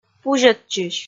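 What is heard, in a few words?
"пузьӧдчысь", Komi, female; kpv audio dict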